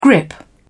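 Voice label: "grip", British English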